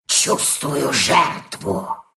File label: attack, ru; Dota 2